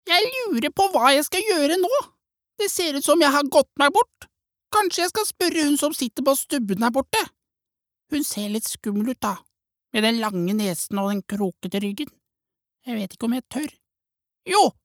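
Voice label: Norwegian dub character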